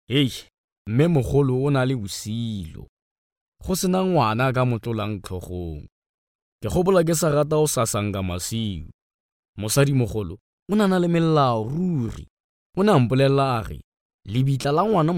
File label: male tswana voice over talent character